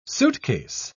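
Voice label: "suitcase", English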